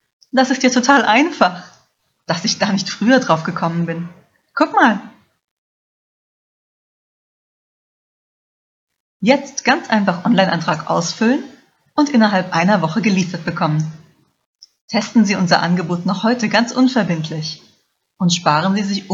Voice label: German advert, Born in Germany

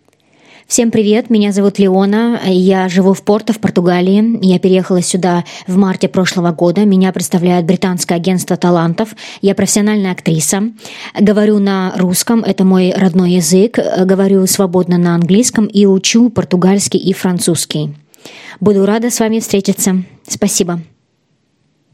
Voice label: russian short intro

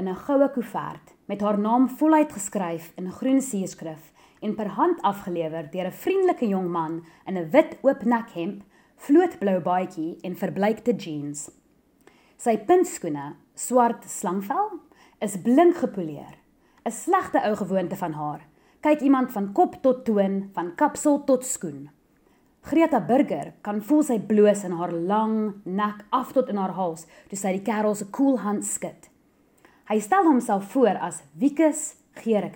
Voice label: Afrikaans

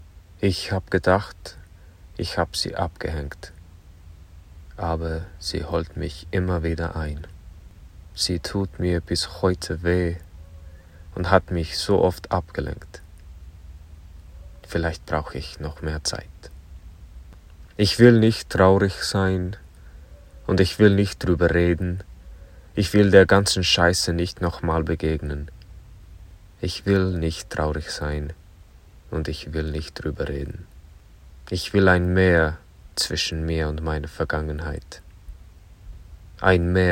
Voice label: deutch

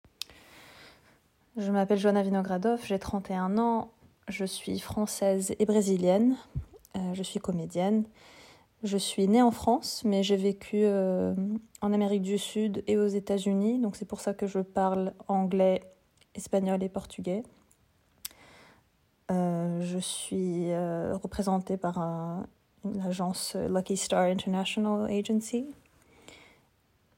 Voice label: French Standard

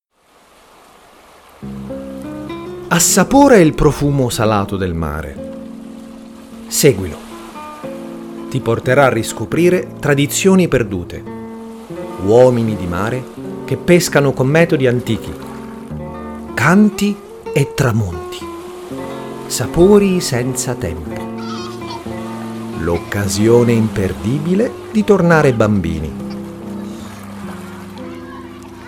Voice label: Voicereel Italian Commercial

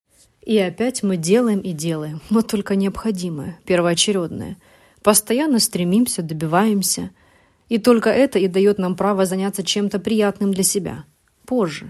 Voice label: Rus Audio

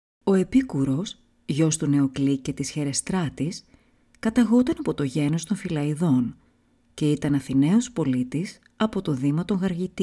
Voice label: Greek Audio

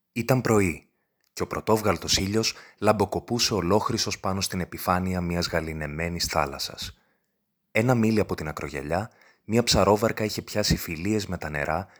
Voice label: Greek

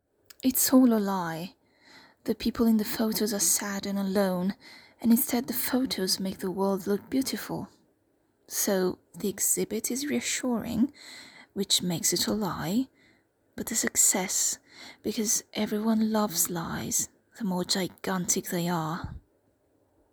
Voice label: British

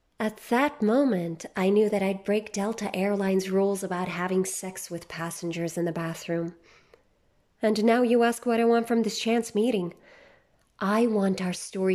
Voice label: AMERICAN ENGLISH

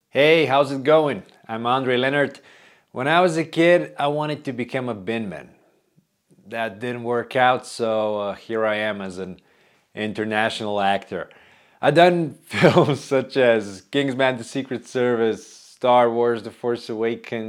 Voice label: english

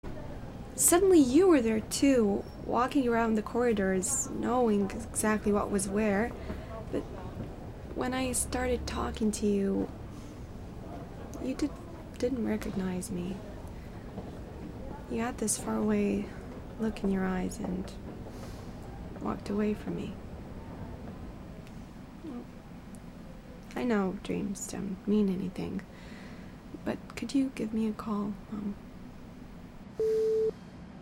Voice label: American Accent

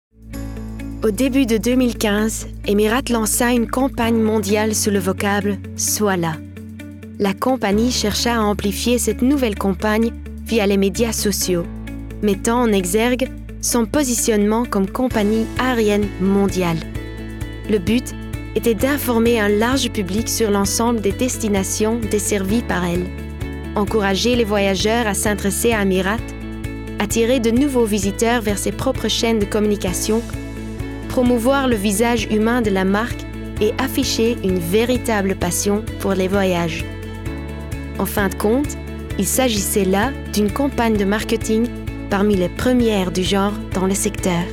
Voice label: Corporate Reel FRENCH

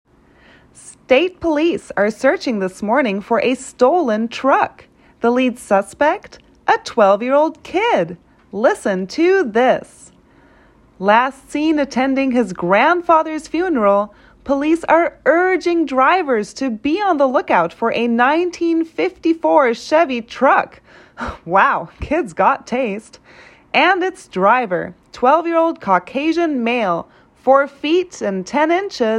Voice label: English News Reporter Gossipy

